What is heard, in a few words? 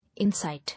"Incite", English